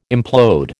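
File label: "implode", American English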